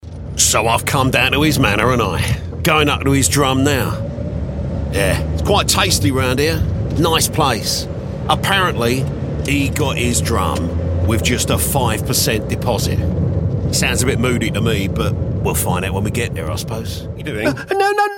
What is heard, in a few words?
commercials character